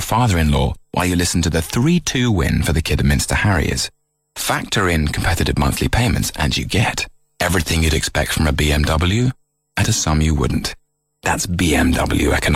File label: dab radio ad; bmw